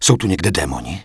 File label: Night Elf Male Help; wowpreklad; czwow; NightElfVocalMale